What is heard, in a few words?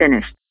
Vocal "Finished", Phone Voice